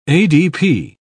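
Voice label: "adp", British English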